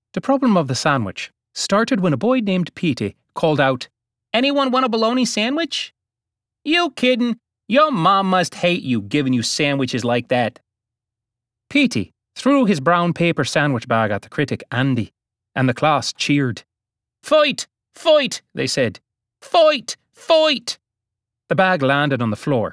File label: Irish Dialect